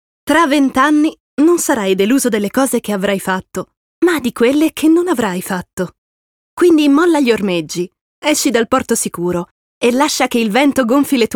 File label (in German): OFF Voice CH IT